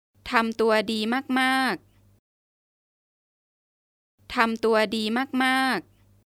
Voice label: (Name) is doing well, Thai